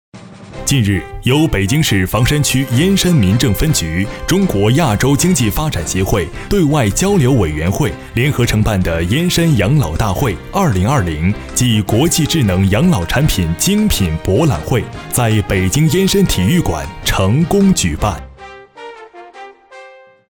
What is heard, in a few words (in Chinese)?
新闻播报